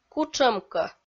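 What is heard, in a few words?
"кутшӧмкӧ" Komi, female; kpv audio dict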